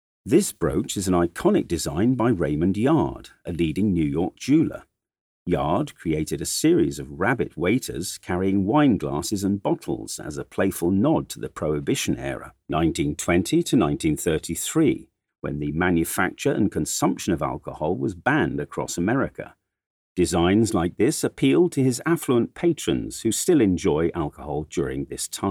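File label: English, audio guide; MET; the met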